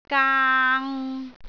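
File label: "gaang3", Cantonese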